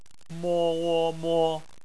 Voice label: "mo", Chinese; phonetics